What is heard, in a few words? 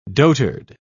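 "dotard", British English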